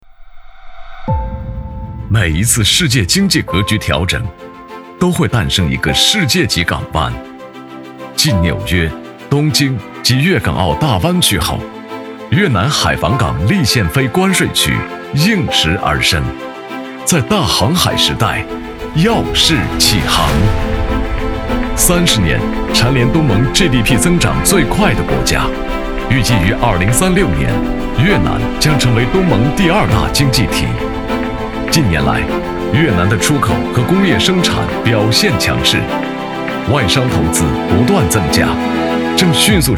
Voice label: 男; 每一次世界经济格局调整

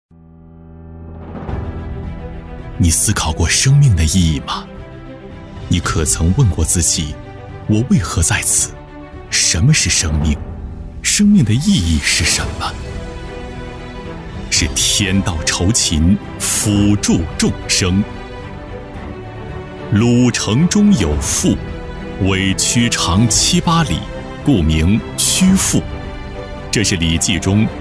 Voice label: 男 宣传片 深情; 生命

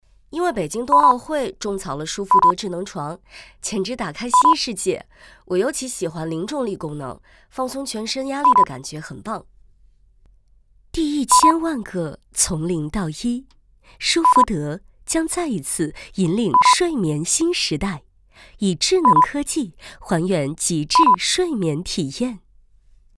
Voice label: 多角色; 麒盛配音文稿

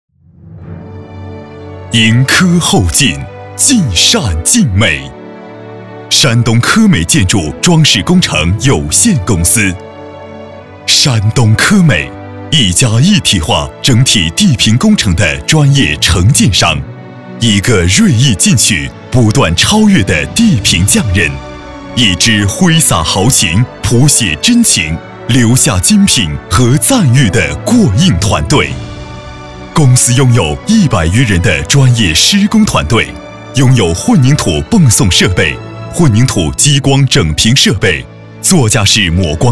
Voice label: 男 企业宣传; 科美集团

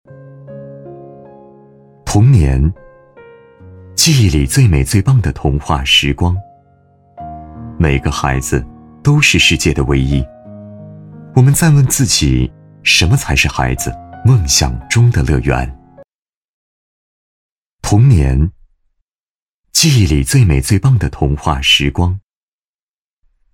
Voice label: 男 介绍 情感

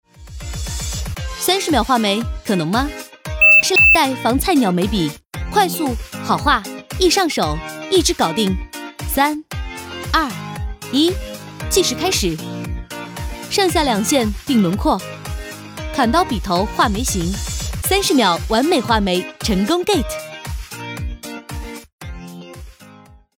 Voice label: 化妆品 眉笔 时尚轻松; 雅诗兰黛防菜鸟眉笔

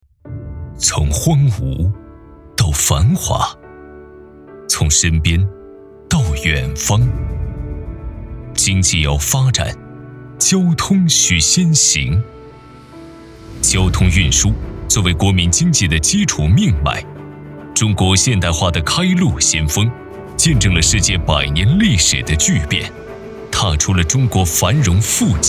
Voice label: 男 汇报类; 新疆交投集团合并重组汇报

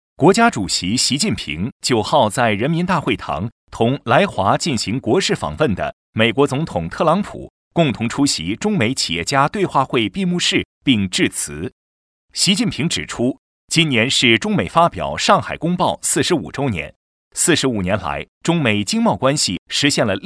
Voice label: 男 纯新闻

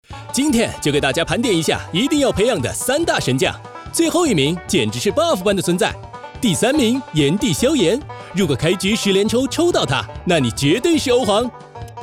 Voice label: 男 信息流 盘点

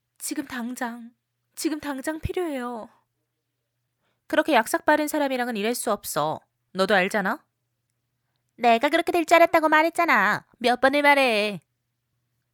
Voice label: 韩语女 多角色配音

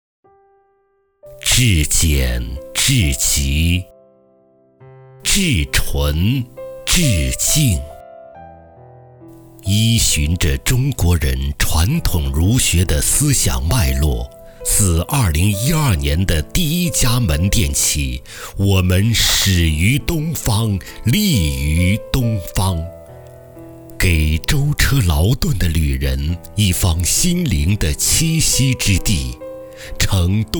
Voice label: 男-广告; 至简至极

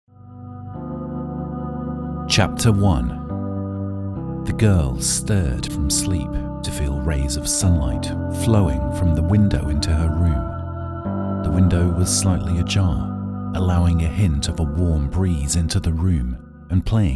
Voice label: 外籍英男 故事讲述 低沉浑厚

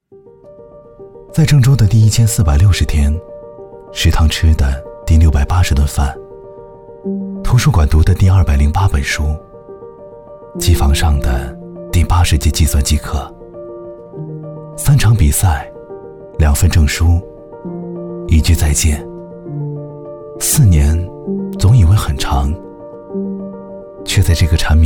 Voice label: 男 走心素人; 在郑州

